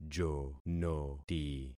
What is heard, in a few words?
"jonoti", concat syllables; Affixing experiment